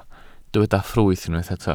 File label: "ffrwyth", Welsh